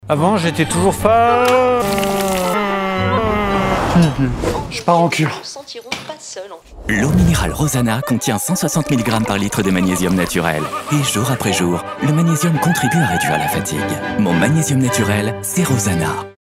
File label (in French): positif informatif